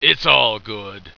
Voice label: its all good, heroic; tactics